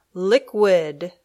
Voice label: "liquid", English